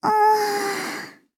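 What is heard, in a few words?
story, Japanese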